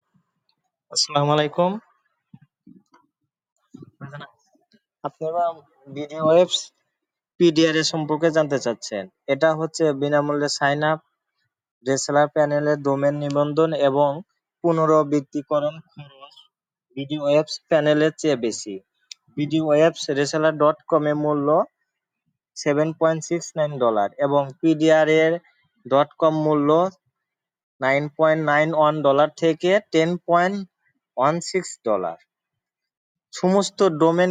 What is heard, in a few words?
voice message